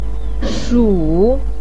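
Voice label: "shu", Mandarin pinyin, third tone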